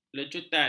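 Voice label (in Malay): "lecutan", Malay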